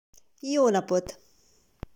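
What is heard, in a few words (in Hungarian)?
"Jo napot", Hungarian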